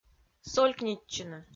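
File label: "солькнитчыны", Komi, female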